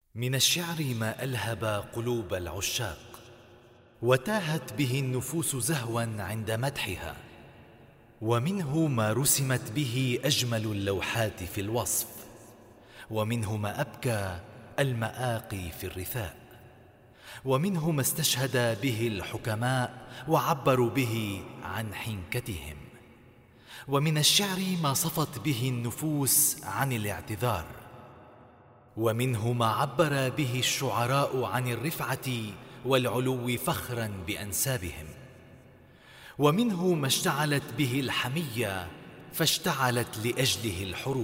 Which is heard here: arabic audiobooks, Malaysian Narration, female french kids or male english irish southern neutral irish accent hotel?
arabic audiobooks